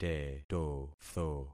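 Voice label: "tetotho", concatenated syllables; Affixing experiment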